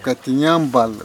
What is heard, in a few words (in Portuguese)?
"comer", kalapalo